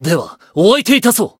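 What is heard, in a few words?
encounter, Japanese; shion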